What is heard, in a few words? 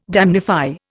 "damnify", Indian